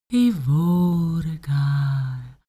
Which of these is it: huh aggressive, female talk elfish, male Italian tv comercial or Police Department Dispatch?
female talk elfish